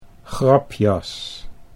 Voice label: "grapjas", Dutch

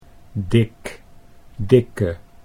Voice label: "dik dikke", Dutch

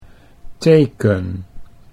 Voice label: "teken", Dutch

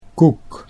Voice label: "koek", Dutch

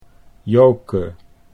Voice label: "Joke", Dutch

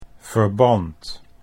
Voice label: "verband", Dutch

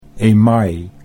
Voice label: "email", Dutch

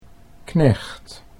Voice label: "knecht", Dutch

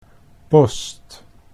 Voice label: "post", Dutch

